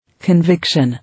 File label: "Conviction", English